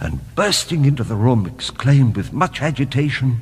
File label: bursting into room exclaim with much agitation, Voice; actions